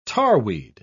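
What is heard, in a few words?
"tarweed", British English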